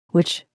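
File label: "witch", English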